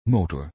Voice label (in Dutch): "motor", vervoer; transportation; Bicycle